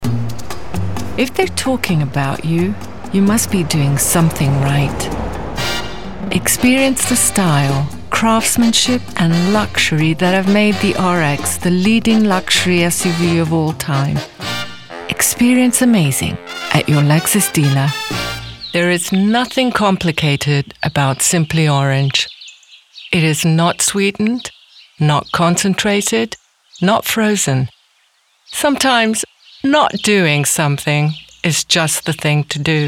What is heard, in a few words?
commercial